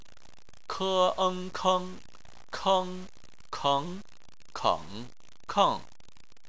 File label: "keng", chinese